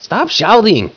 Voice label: stop shout